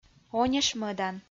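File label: "онишмӧдан" Komi-Zyrian, female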